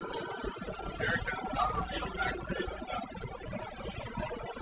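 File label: factory enhanced, Enhanced Speech, Factory Noise; Single Channel Noise; Lombard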